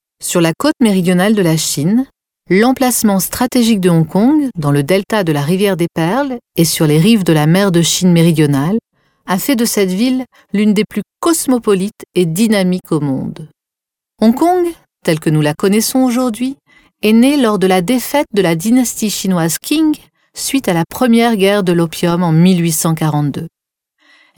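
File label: French female corporate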